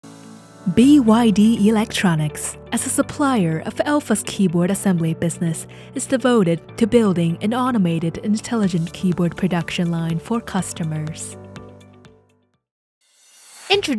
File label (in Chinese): 广告英语